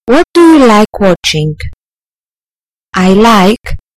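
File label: "what do you like", British English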